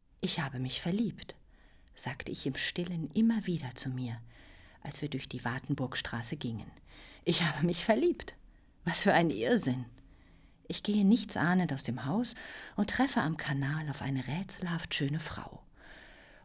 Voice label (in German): Hoerbuch